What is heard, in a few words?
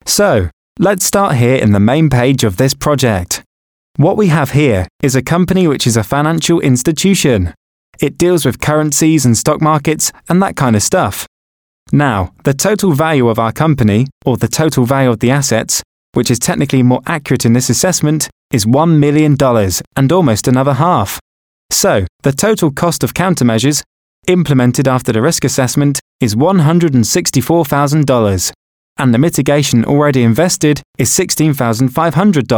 UK English E-Learning